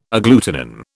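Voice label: "agglutinin", British English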